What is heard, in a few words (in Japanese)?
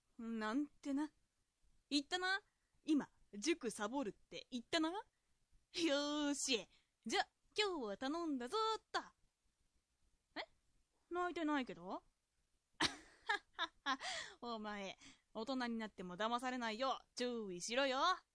dansei